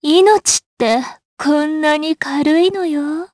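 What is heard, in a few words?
Vox Skill, Japanese; DarkFrey; kingsraid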